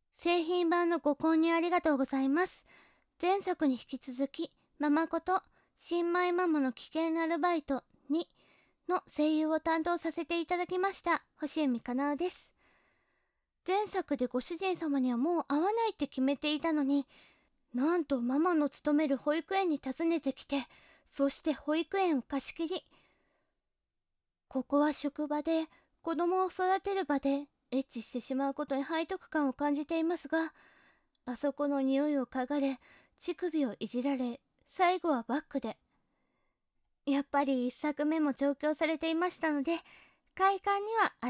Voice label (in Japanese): フリートーク, ASMR; 危険なアルバイト２